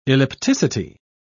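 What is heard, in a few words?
"ellipticity", British English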